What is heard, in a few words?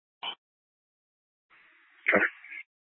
Tactical Incidents, scanner